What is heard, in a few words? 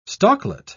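"stalklet", British English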